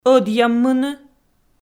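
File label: "ӧдъяммыны", Komi-Zyrian, female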